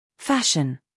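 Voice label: "scandal", American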